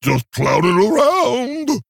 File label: Male Orc